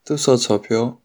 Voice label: how much shanghainese